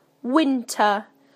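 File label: "winter", British English; PictureDictionary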